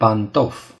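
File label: "shoe", Romanian; gcompris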